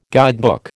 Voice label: "guidebook", American